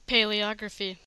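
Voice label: "paleography", American English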